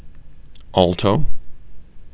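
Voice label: "alto", CAN, male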